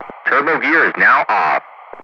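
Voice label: "turbo gear is now off", English, Ground Crew; DCS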